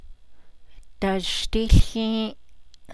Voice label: melt it 4th person plural, Navajo